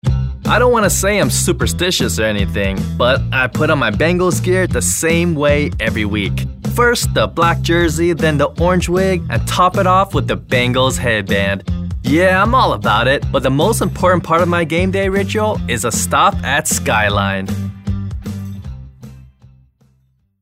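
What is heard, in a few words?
full of youthful energy; Skyline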